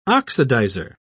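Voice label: "oxidizer", British English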